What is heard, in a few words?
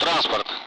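Speech Sound Russian Common Allied Flight; DCS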